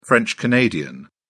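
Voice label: "french canadian", British English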